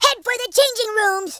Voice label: coward, American Wrestler